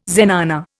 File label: "zenana", Indian